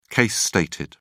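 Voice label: "case stated", British English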